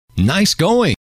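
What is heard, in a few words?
nice going, male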